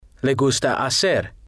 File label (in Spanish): "le gusta hacer", spanish